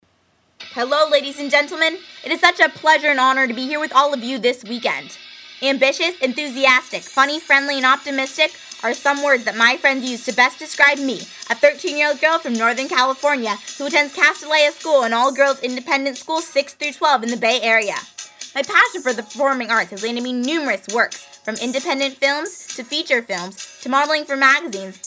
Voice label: voiceover